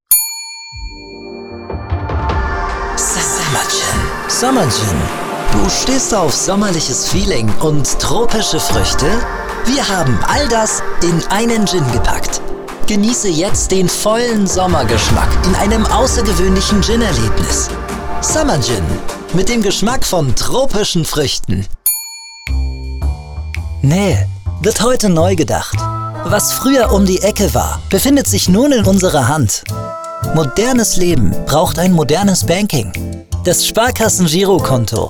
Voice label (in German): Werbung